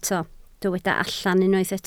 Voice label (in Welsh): "allan", Welsh